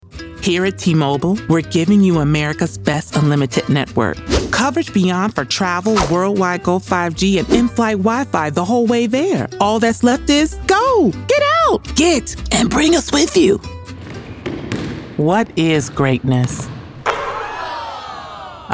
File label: Commercial